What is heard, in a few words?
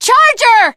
teengirl, voice; Left 4 Dead 2; charger